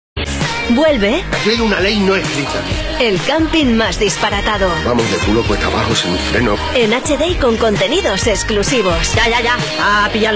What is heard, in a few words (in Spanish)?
Tono joven